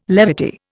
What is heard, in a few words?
"levity", Indian